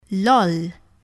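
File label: "loll", English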